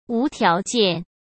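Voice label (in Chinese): "无条件", Mandarin Chinese; hsk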